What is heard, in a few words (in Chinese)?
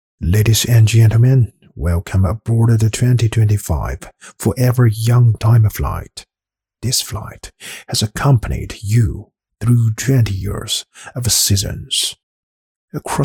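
男 大气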